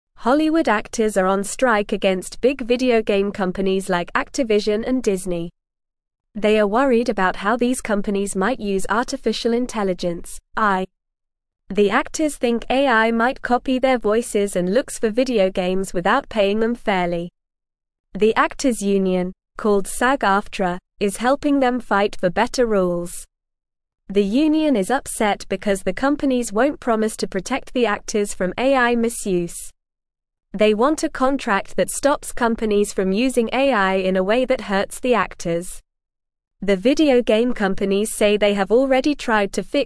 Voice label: English news reading, lower intermediate, normal; English Newsroom; Actors in Video Games Want Fair Treatment Now